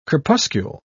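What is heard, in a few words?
"crepuscule", British English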